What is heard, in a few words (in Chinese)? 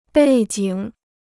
"背景", Chinese